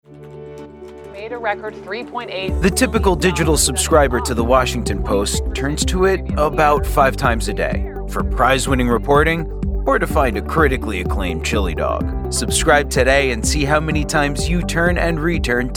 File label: Commercial